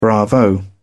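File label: "bravo", British English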